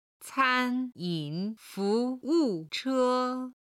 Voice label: "canyin fuwuche", chinese